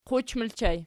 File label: "quch", Archi